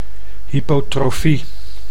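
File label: "hypotrofie", Dutch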